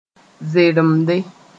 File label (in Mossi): "zeremde", moore